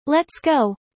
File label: fast; studying abroad